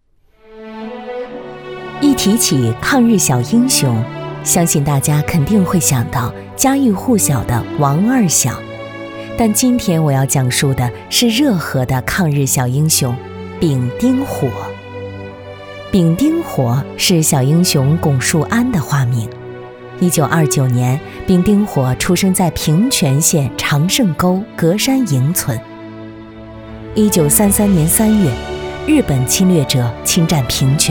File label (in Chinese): 女 抗日故事